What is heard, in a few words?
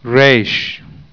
resh, heb